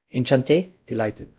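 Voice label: Voice Acting Spanish, male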